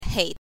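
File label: "hei", Mandarin Chinese, neutral tone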